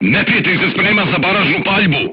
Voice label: voice message art shooting warning, crew tanks serbian